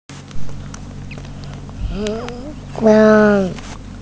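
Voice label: "feng1", Mandarin Chinese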